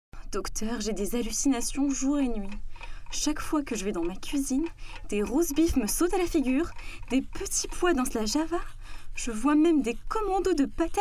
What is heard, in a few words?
French COMMERCIAL